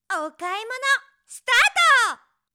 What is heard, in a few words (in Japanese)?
お買い物！スタート！, 妹系 ぶりっ子 元気な子